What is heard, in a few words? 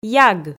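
"яг", Komi, female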